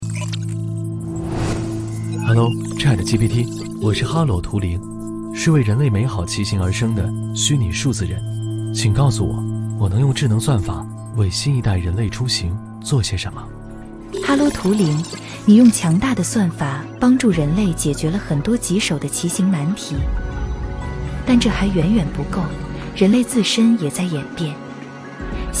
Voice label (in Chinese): 男 对口型 tvc 电动车; 哈啰